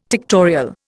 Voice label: "tectorial", Indian